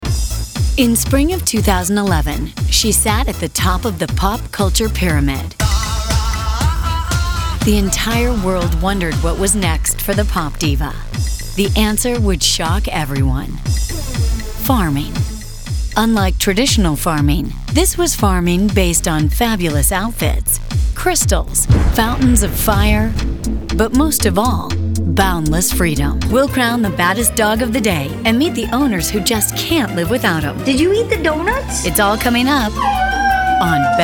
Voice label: Narration